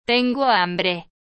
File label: Spain "Tengo hambre"